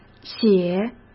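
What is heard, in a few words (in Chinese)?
"写", Mandarin Chinese; hsk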